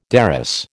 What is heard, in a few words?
"derris", American